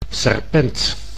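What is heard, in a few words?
"serpent", Dutch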